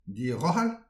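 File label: "dirochal", Breton; petit precis de prononciation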